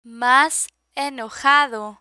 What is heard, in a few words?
"mas enojado", Spanish; medical medicine vocabulary; learn spanish